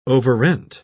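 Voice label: "overrent", English, British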